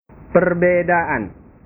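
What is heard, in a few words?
"perbedaan", Indonesian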